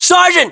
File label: rank sergeant, us